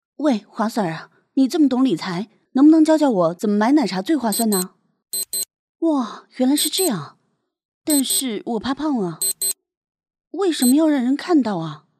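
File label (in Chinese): 女 tvb腔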